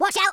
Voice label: incoming, speech, Madchester